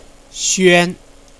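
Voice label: "xuan1", Chinese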